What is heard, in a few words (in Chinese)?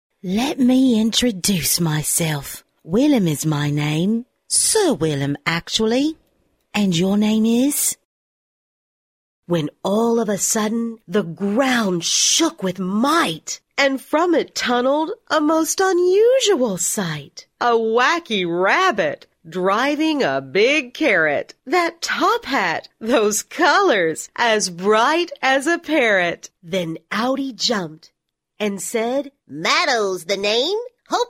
外籍女-儿童 Childrens Stories